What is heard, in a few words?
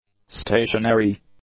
"stationary", English; adjectives